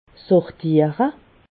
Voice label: "sortiere", alsace